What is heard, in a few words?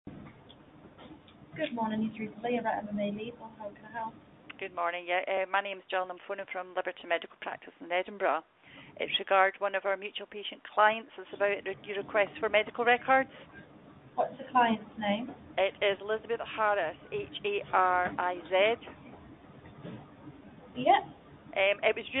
call recording